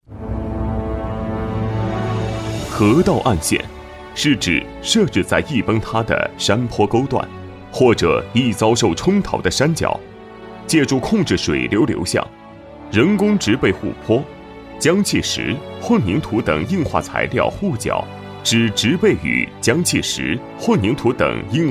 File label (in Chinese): 男 解说 大气正式 岸线介绍